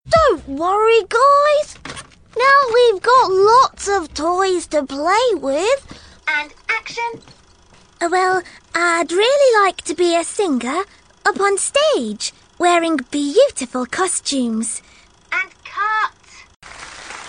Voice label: Animation